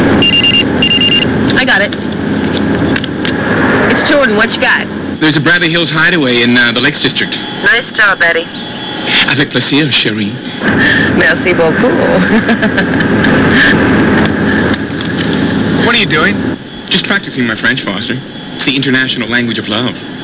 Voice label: phonecall